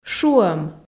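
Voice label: "шуӧм", Komi, female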